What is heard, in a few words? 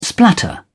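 "splatter", British English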